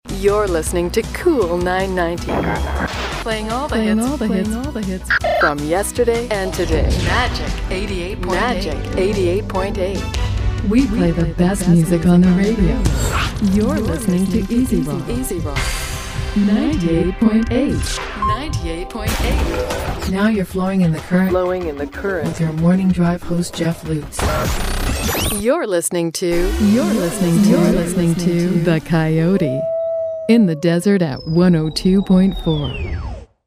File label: imaging